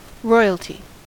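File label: "royalty", American English; pronunciations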